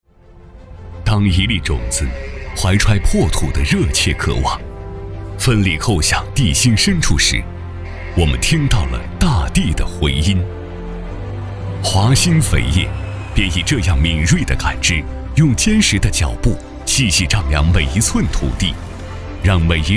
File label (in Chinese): 男 宣传片; 华鑫肥业